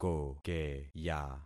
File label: "kokeya", concat syllables; Affixing experiment